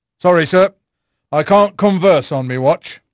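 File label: English male; Pirates Of The Caribbean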